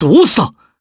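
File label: Vox Japanese; Clause; kingsraid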